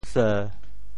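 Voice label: "seu7", Teochew; 事; 潮州; shì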